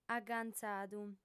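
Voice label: "agantzadu", Sardinian, female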